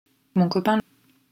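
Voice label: pronunciation "mon copain", French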